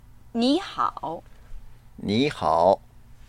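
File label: "ni hao", Mandarin Chinese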